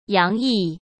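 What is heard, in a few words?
"洋溢", Mandarin Chinese; chinese flashcards; hsk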